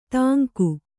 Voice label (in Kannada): "ತಂಗು", Kannada